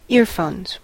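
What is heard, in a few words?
"earphones", American English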